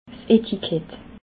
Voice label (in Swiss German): "etiket", alsace